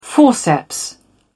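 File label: "forceps", en, uk British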